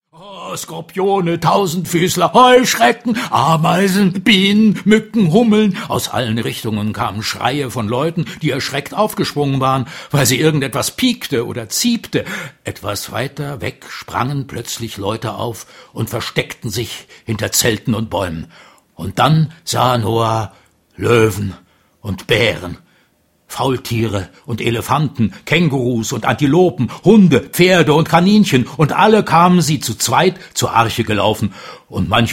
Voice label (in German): Erzaehler